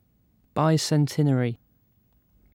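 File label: "bicentenary", British English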